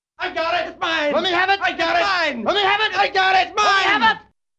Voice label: Group Of Male Baseball Players Calling To Catch Ball, Cartoon; Hollywood Edge; Cartoon Trax